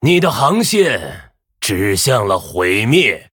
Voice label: Male Human Threaten; zh